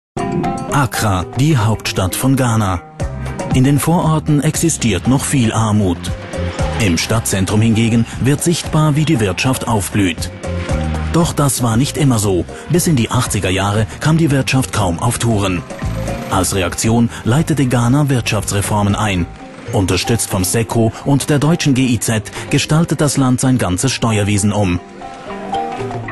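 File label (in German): TV Doku, Männer; Zürich; CH-8006 Zürich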